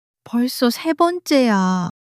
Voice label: sulk, monologue